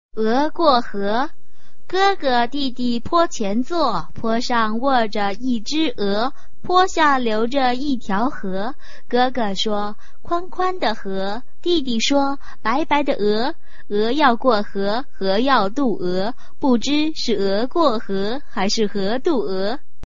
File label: chinese tongue twister